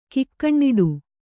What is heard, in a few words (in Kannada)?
"ಕಾಕನಾಡು", Kannada